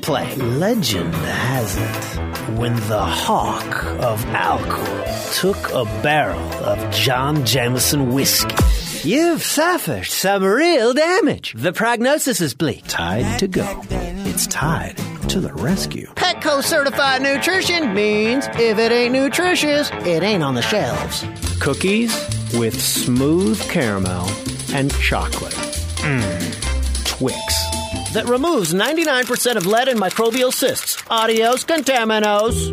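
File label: Male Character, voiceover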